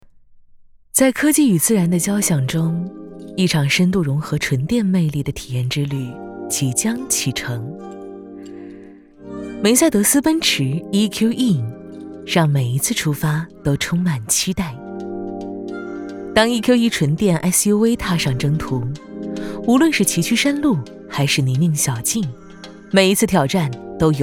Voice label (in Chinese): 女 独白 质感; 奔驰